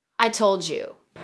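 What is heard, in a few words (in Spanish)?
Voice Acting, Spanish, female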